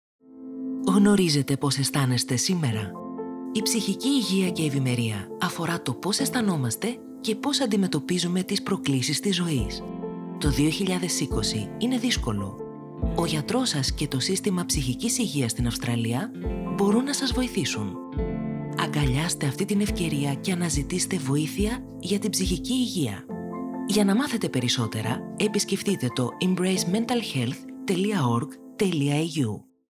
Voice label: Mental Health Awareness - Greek

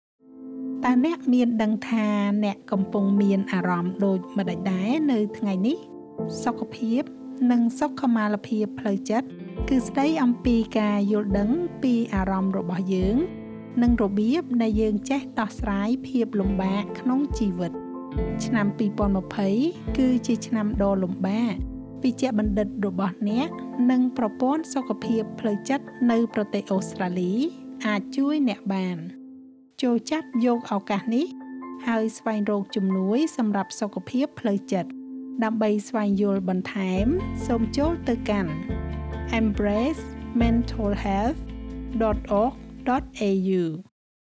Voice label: Mental Health Awareness - Khmer

